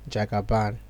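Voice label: "jagaban", Nigerian Pidgin, male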